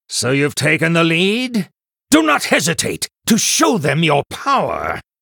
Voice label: Male Human First Place